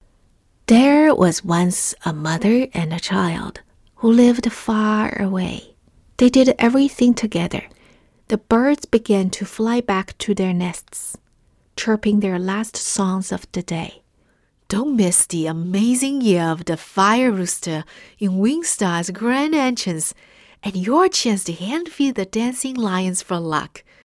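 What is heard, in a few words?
Chinese English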